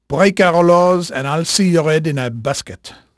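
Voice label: French male, voice; Pirates Of The Caribbean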